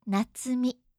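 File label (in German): "natsumi", japanische Mädchennamen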